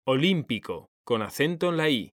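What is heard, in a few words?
"olímpico", Spanish; mekanta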